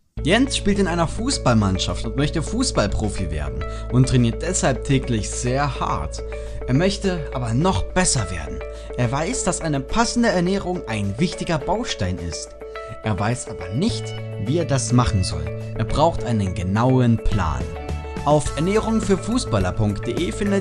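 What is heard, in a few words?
German, male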